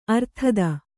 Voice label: "ಅರ್ಥಿತ", Kannada

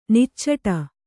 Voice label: "ನಿಚ್ಚಟ", Kannada

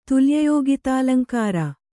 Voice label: "ತುಲ್ಯಯೋಗಿತಾಲಂಕಾರ", Kannada

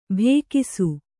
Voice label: "ಭೇಕಿಸು", Kannada

